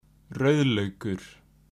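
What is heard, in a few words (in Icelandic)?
"rauðlaukur", Icelandic; matarhefti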